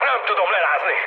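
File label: Hungarian aircraft damaged mood high, speech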